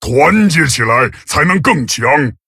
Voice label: Male Tauren; TRIGGER Hunting Party; zh

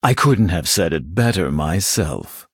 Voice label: Male Blood Elf MIRROR GREETINGS; en

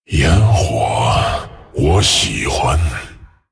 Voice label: Male Dragon FIRE FESTIVAL; 仲夏节 Midsummer Fire Festival; 烟火。我喜欢。; 风暴巨龙迦拉克隆（英雄）; Fireworks. I love those...; zh

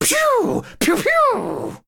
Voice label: Male Human Attack, zh

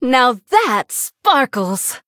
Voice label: Female Human Wow; en

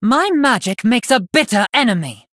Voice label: Blood Elf Threaten

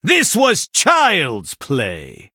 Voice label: Male Blood Elf; TRIGGER BlastWave; en

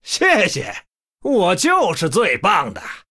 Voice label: Male Human Thanks, zh

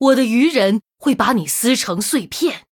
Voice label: Female Murloc Threaten; zh

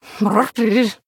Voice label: Oops, Female Murloc; en

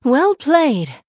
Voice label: Female Murloc Well Played; en

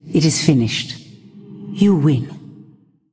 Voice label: Female Ghost CONCEDE; en

